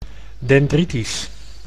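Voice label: "dendritisch", Dutch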